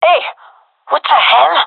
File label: taking fire friendly, fem